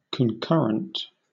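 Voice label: "concurrent", British English; pronunciations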